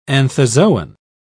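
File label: "anthozoan", British English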